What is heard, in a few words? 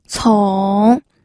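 "cong", Mandarin Chinese, third tone; pinyin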